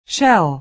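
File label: "shell", American English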